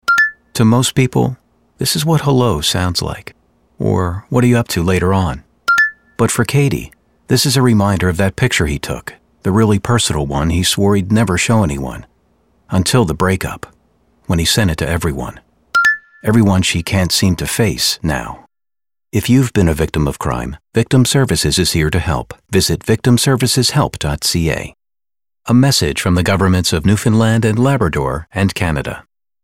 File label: Radio; Newfoundland Victim Services